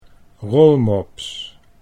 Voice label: "rolmops", Dutch